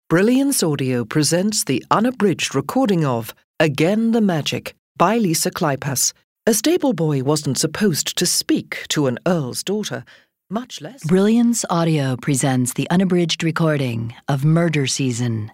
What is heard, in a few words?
audiobook samples